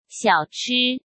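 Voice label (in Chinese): "小吃", Mandarin Chinese; chinese-flashcards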